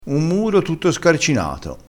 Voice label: "scarcinato", vocabolario dialettale, crespinese

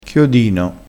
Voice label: "chiodino", crespinese

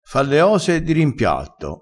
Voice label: "di rimpiatto", crespinese

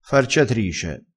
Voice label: "farciatrice", crespinese

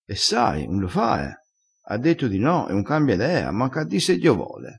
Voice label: "manc a di", crespinese, vocabolario dialettale

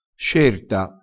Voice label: "scerta", vocabolario dialettale, crespinese

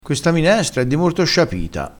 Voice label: "sciapita", crespinese

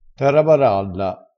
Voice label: "tarabaralla", crespinese

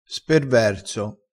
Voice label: "sperverso", crespinese

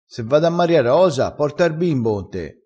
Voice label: "strascina", vocabolario dialettale crespinese

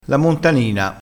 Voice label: "montanina", crespinese

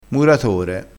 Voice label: "muratore", dialettale crespinese

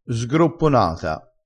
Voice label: "sgropponata", vocabolario dialettale

